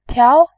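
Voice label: "tiao", Mandarin Chinese, second tone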